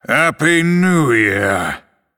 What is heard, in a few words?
Male Night Elf HAPPY NEW YEAR LUNAR